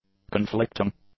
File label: "conflicting", English; adjectives